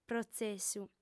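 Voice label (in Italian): "protzessu", lingua sarda, fem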